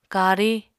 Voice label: "kariñ", apatani